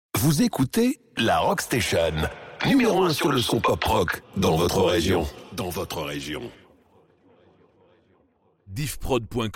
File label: Radio Drop Rock Station; Radio Aix